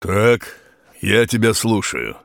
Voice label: lumberjack greeting